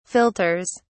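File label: "filters", British English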